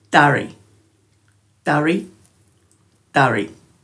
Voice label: "dhari", Dhurga

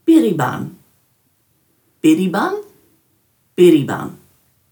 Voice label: "birribanj", Dhurga

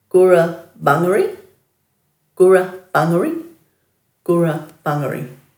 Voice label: "gurabungari", Dhurga

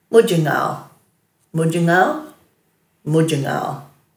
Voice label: "mudjingaal", Dhurga

